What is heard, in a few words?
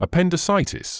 "appendicitis", medical english uk; uh-pen-di-SIGH-tiss; əˌpen.dɪˈsaɪ.tɪs